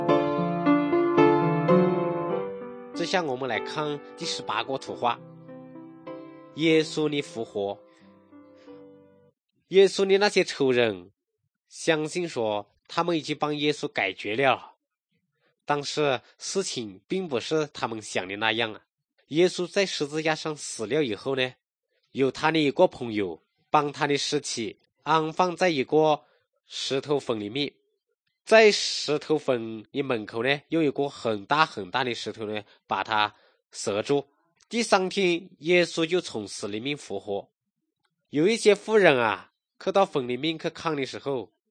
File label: good news shan, drama